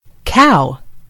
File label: "cow", en GB; gcompris